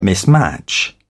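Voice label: "mismatch", British English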